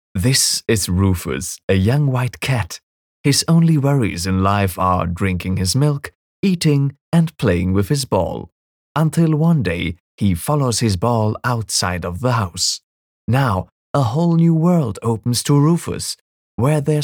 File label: english movie trailers